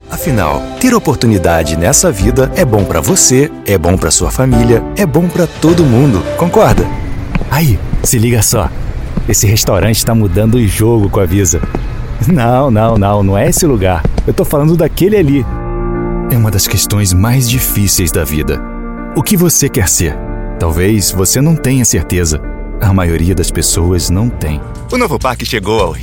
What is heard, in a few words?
portuguese brazilian commercial, male; voice actor